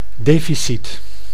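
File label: "deficit", Dutch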